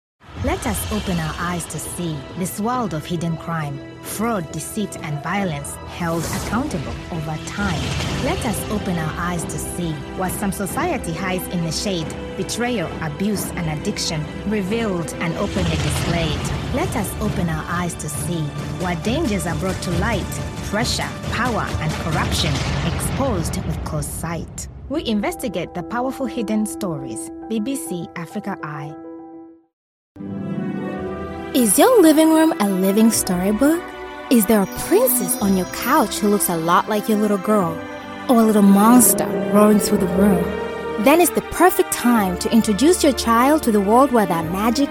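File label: African English, Commercial; GoLocalise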